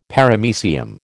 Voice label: "Paramecium", American